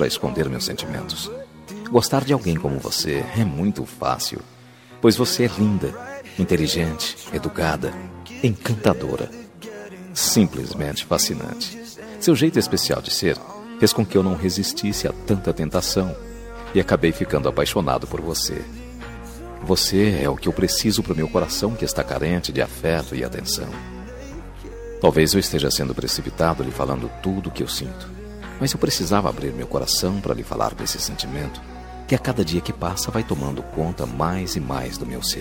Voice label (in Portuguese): dia dos namorados paquera masculina; dia dos namorados conquista voz masculina